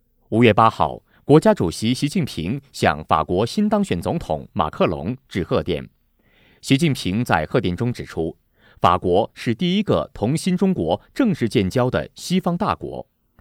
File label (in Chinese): 男 新闻; 优质年轻